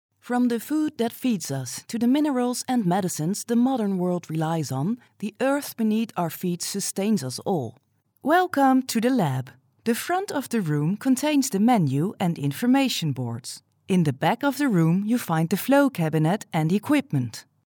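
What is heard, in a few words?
Dutch, female; dutch voice over talent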